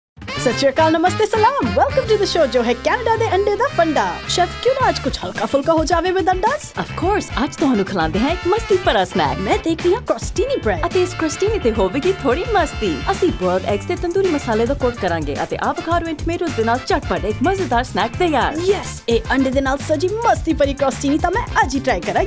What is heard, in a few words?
Punjabi Spot; EggFarmer; Eggs SA